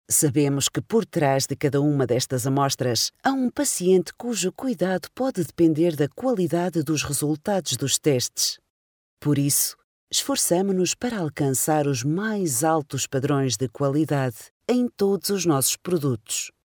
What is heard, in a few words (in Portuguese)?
locutora portugal portuguese voiceover